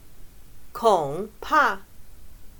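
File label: "恐怕", Chinese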